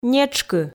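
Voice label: "нетшкы", Komi, female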